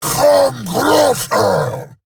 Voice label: Attack, en; Warframe; Eidolon Grineer; Battle Barks